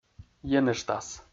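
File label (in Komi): "енэжтас", Komi, male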